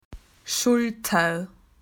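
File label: "shoulder", Australian English; PictureDictionary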